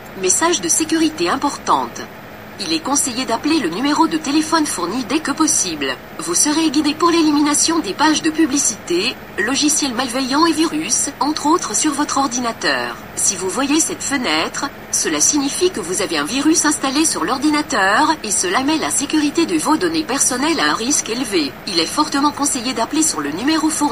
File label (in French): telephone surtaxe message audio; phishing